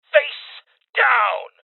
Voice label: Voices Order To Surrender Male